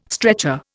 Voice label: "stretcher", Indian